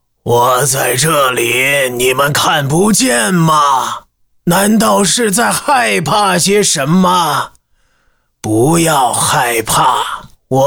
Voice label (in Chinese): 男 模仿 恐怖鬼类 法老